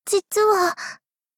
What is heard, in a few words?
sad